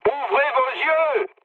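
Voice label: French player friendly fire mood med; War Thunder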